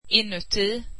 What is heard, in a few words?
swedish inside